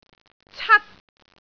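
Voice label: "cat1", Cantonese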